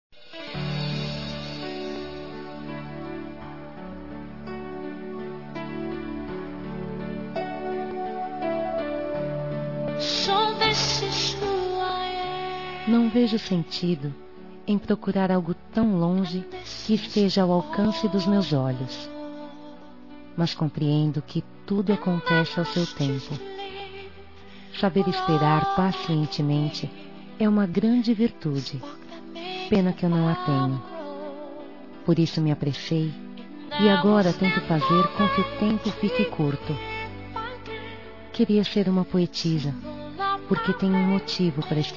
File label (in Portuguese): Romântica Com Conquista, F